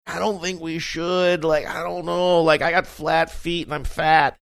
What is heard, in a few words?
saying i dont think we should funny